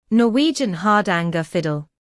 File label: Norwegian Hardanger fiddle